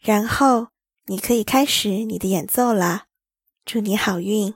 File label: you may start now chinese